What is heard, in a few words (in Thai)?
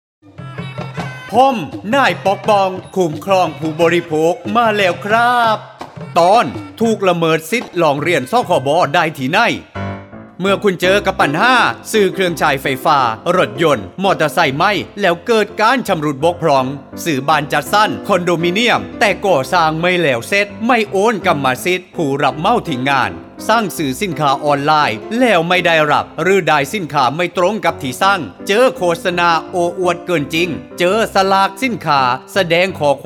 สปอตวิทยุ ภาคใต้; สื่อประชาสัมพันธ์; สคบ.; สำนักงานคณะกรรมการคุ้มครองผู้บริโภค